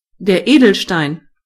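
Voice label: gem, German voices words; gcompris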